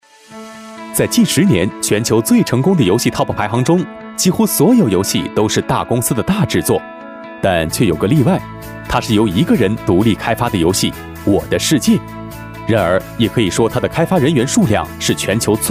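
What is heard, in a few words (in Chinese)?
男【MG】娱乐播报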